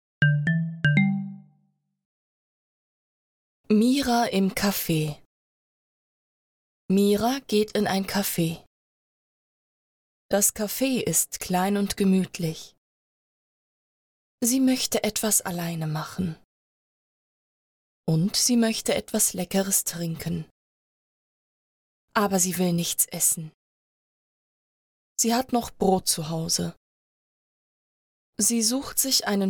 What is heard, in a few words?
German Easy Reader; Mira Adam I